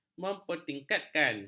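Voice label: "mempertingkatkan", Malay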